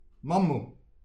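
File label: "mammou", Breton; petit precis de prononciation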